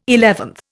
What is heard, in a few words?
"eleventh", Indian